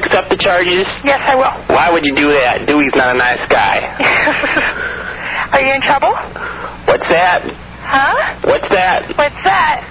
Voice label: collect call, Prank Calls